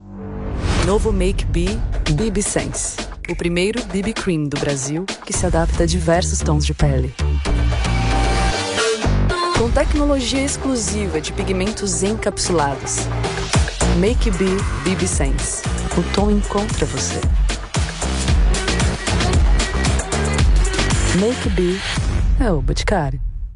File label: portuguese brazilian narration